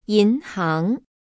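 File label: "yinhang", Chinese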